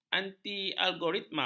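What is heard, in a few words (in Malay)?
"antilogaritma", Malay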